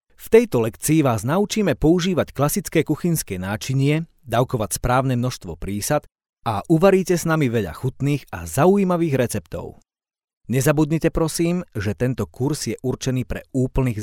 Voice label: slovakian voiceover locutor eslovaco